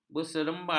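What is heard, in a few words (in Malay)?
"berseberangan", Malay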